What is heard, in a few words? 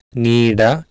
"niiDa", Sanskrit